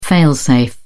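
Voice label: "fail-safe", British English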